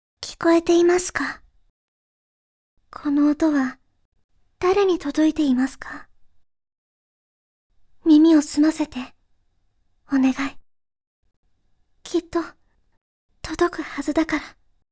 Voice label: girl